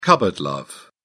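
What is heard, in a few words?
"cupboard love", British English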